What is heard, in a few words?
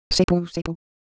Siwu Color Visual; ideophones